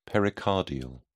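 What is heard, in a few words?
"pericardial", British English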